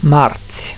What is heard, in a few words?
"marti", romanian; tijden